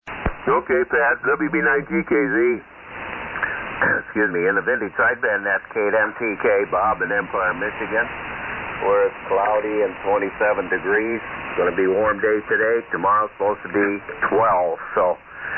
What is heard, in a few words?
Collins 32S-1 75S-1 SB-200 Amp D-104 Mic; Empire,MI.